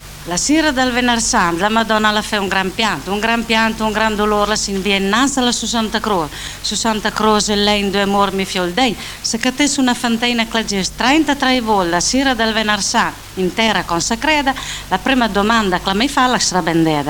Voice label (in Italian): orazione; la sira dal venar sant